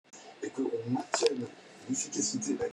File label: voice message